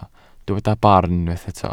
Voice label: "barn", welsh